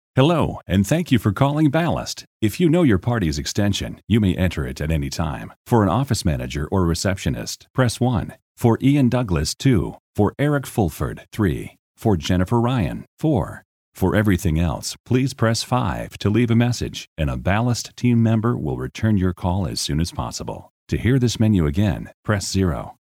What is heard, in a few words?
on hold IVR